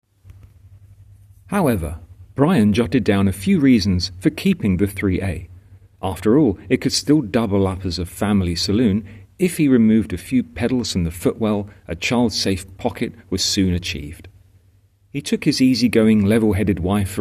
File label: voiceover